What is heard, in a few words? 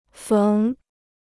"缝", Chinese